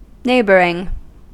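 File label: "neighboring", American English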